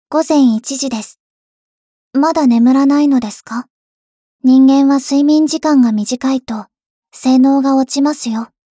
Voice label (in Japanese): 時報ボイス 電脳少女; ボイスコレクション 電脳少女編